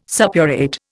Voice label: "suppurate", Indian

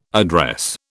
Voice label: "address", British

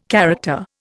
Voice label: "character", Indian

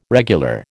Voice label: "regular", American

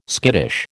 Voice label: "skittish", American

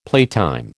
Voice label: "playtime", American

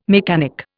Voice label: "mechanic", Indian

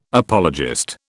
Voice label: "apologist", British

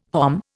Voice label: "hom", Indian

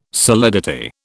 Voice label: "solidity", British

